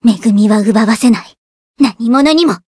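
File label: encounter fear, Japanese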